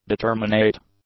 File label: "determinate", english; adjectives